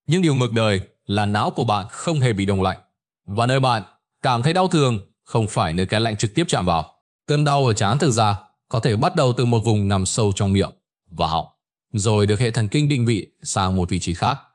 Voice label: narration